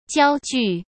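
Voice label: "焦距", Mandarin Chinese; chinese-flashcards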